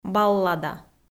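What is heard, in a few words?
"баллада", Komi, female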